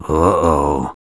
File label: Enemy spotted, English, Male; gangsters